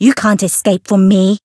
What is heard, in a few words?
Voice variable chant; niike